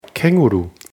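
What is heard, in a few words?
"känguru", German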